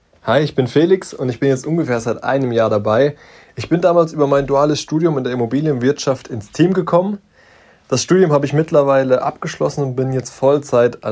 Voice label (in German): sprachnachricht